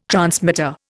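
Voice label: "transmitter", Indian English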